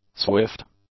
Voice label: "swift", English; adjectives